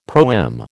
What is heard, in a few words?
"proem", American